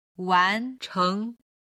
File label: "wan2cheng2", chinese